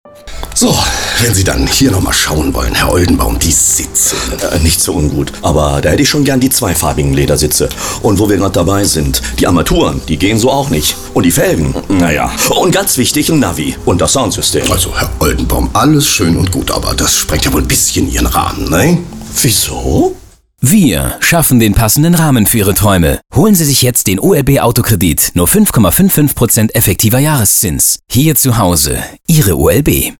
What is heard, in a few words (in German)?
autohaus funk; olb